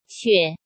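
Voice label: "Xuě", Chinese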